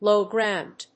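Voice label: "low ground", English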